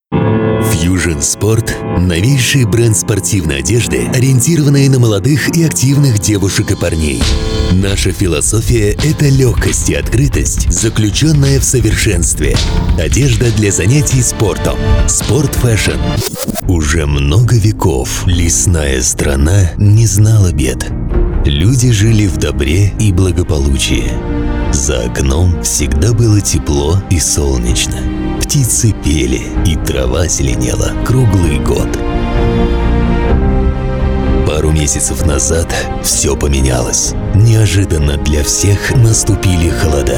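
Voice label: Russian, male, commercial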